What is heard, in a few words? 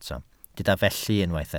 "felly", Welsh